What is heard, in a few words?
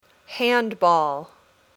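"Handball", English word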